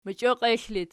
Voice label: "mochioklexl", Archi

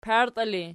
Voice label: "partii", Archi

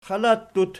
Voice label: "xalattut", Archi

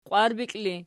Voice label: "qwarbikli", Archi

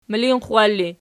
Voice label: "milinxxwalli", Archi

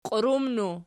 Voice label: "qiurumnu", Archi

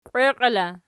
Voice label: "qweqiela", Archi

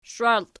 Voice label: "shwalti", Archi

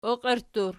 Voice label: "oqierttur", Archi

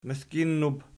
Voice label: "mizginnub", Archi

